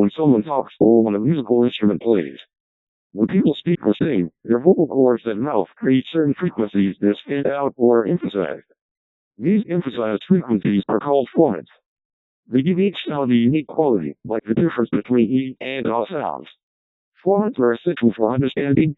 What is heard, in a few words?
deep voice codec2